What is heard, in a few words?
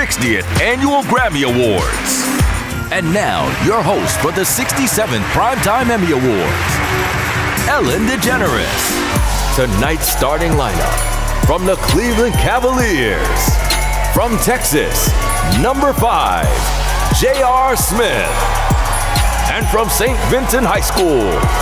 Live Announce; Live Announcing & Hosting